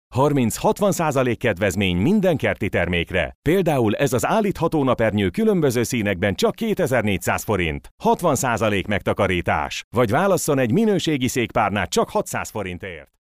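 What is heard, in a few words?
Hungarian, male, commercial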